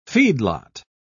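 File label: "feedlot", British English, dictionary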